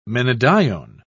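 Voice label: "menadione", British English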